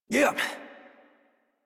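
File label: horse start adult, English; Warframe; Duviri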